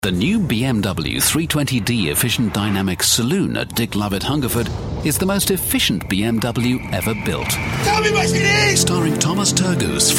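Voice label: British commercials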